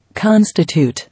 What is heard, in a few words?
"Constitute", English